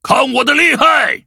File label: battle, zh-hant